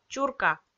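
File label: "чурка", Komi, female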